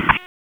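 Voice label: Police Spec Ops Tac, radio; Raleigh; Tac 4; p25; wake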